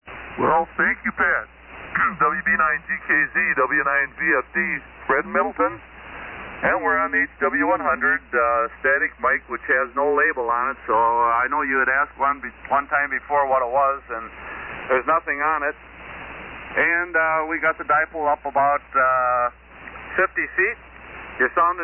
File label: Heathkit HW-100; Middleton,Wi.